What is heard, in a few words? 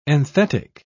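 "enthetic", English, British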